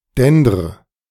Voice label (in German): dendr, De